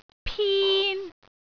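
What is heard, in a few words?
"pin1", Cantonese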